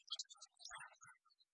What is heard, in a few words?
it was christmas morning male; Voice; xmas